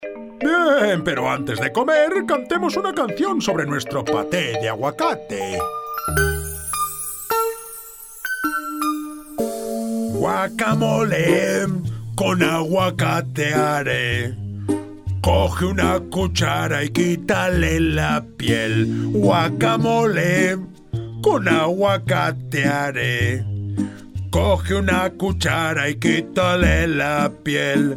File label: spanish